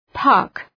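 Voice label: "puck", English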